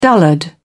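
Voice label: "dullard", British English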